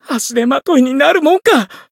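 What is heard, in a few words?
Voice encounter, Japanese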